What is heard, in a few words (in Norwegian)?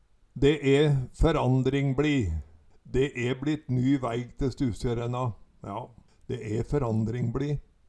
"de e ferandring bLi", Numedalsmål